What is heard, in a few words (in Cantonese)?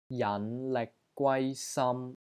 "JAN5 LIK6 GWAI1 SAM1", Wing Chun; Elbow Down; Wing Chun Elements Glossary